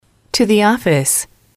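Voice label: to the office, English, female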